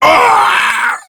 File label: Death Prime Grineer Male; Tau; Battle Barks